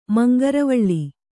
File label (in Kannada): "ಮಂಗರವಳ್ಳಿ", Kannada